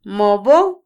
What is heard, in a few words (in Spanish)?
Otomí, mujer; mojado; Percepción; INALI